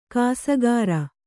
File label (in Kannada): "ಕಾಸಗಾರ", Kannada